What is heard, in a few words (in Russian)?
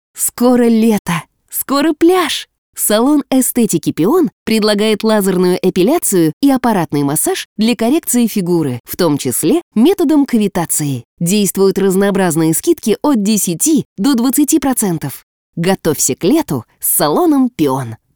dushevno